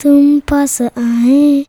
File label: CHILD VX, Indian Traditions